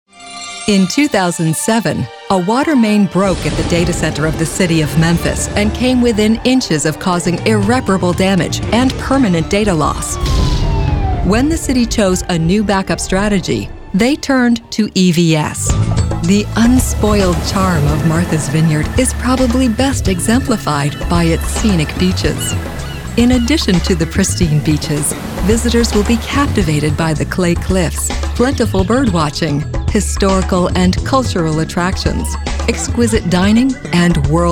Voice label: Voice Talent Narrations

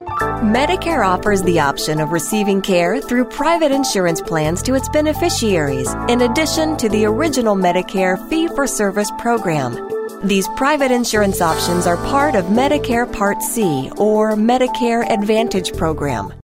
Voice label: Medicare Spanglish

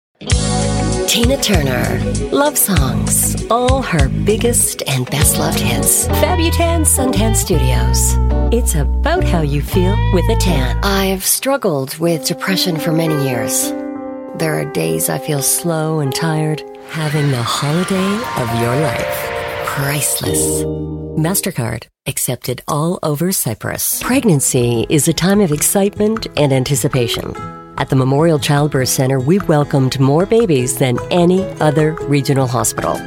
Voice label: Voice Talent Commercial; Commercial Demo

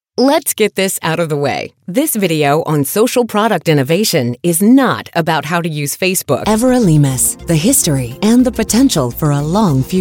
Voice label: Voice Talent Narration

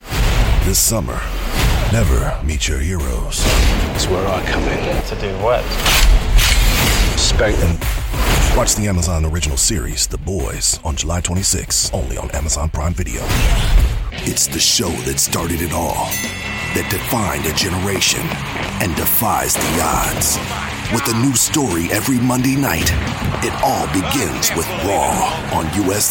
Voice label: Promo

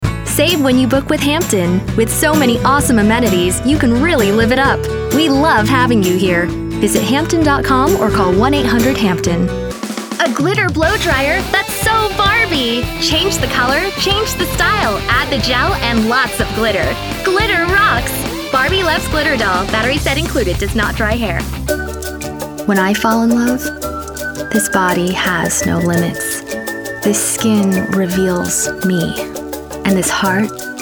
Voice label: Commercial

